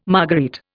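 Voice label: "marguerite", Indian English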